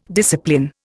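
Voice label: "discipline", Indian English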